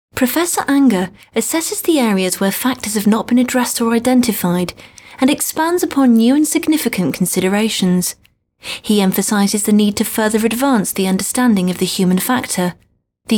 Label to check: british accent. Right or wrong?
Right